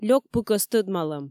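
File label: "лёк пыкӧс тӧдмалӧм", Komi, female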